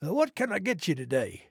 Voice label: trader male like greeting